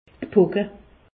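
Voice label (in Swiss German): "pocke", Alsatian; medecine; variole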